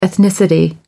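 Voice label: "ethnicity", British English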